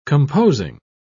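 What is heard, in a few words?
"composing", English, British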